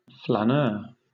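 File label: "flaneur", British English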